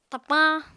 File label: "tapañ", apatani